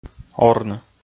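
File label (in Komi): "орны", Komi, male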